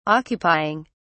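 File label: "occupying", British English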